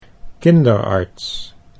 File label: "kinderarts", Dutch